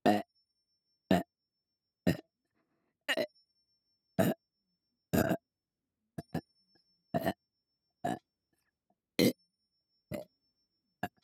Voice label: 左耳 3拍子 「え」 メトロノーム; 月賦村レポート作成資料〜連続ゲップ真穂の練習〜

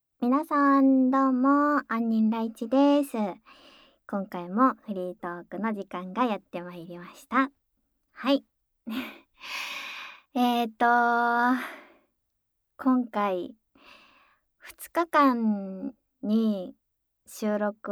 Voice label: フリートーク; ダウナーオホ声WサキュバスJKと激狭ワンルームの生活音垂れ流しだらだらえっち同棲

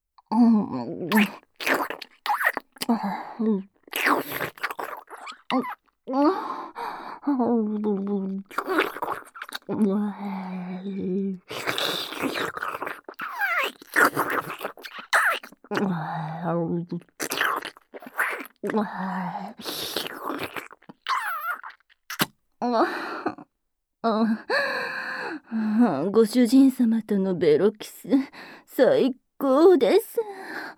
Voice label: お下品媚び媚び囁きボイス; 手コキ射精; ド下品オホ声; 人格改変 捕虜になった聖騎士エルフをメス堕ちさせて性奴隷にする話

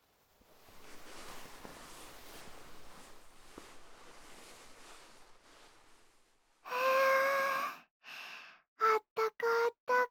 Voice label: ラブラブささやきボイス; いっしょぐらし ～妹系彼女編～

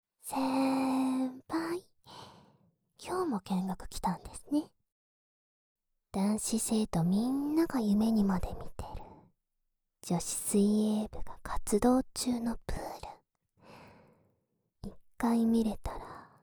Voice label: えっちする妄想囁き両耳舐め手コキ

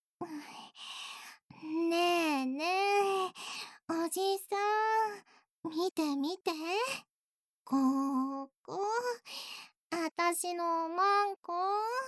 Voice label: メスガキ達のレズキス放尿♪『おしっこ出る♪おしっこ出る出る出る出るぅぅううう♪♪』, ASMR

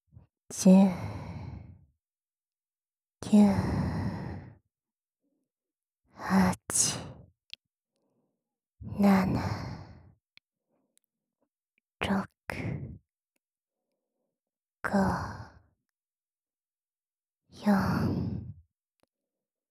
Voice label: カウントダウン ゆっくり

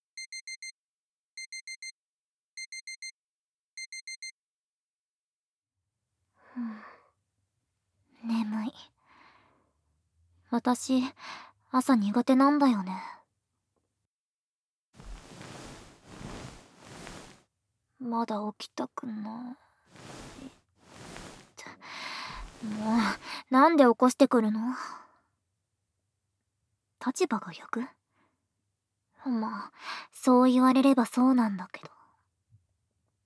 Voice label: 目覚ましボイス; あおい先生

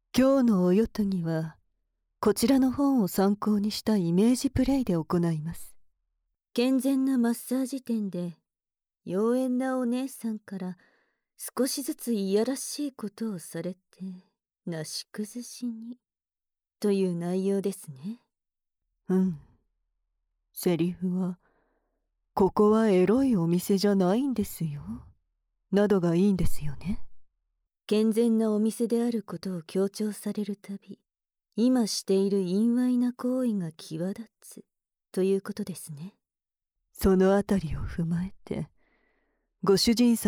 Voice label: イメージプレイ 健全マッサージ店編（効果音無し）, ASMR; ドスケベクールな意地悪メイドさん二人

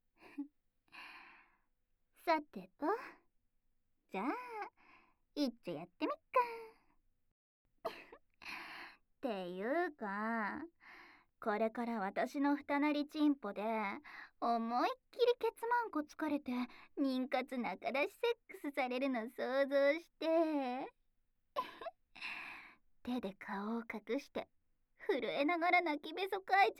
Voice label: 耳舐めでオス穴ほぐし, ASMR

